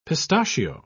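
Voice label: "pistachio", English word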